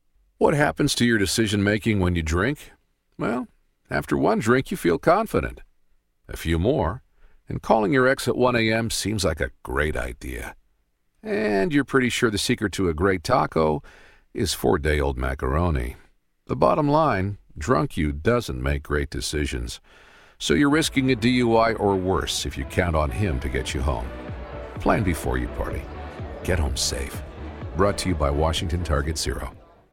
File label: DUI Radio Eng